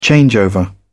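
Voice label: "changeover", British English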